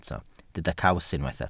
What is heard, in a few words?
"caws", welsh